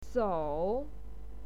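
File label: "sou", Mandarin Chinese, third tone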